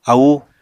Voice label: "aúu", Apatani